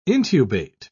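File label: "intubate", English, uk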